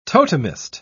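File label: "totemist", English, British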